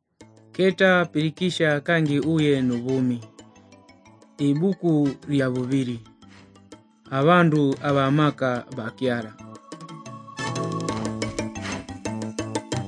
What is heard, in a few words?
nt drama, ngonde; kinyakyusa; abandu bamaka ba kyala mighty men of god; bible society of tanzania